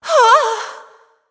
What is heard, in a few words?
Female Shivarra Death